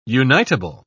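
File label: "unitable", British English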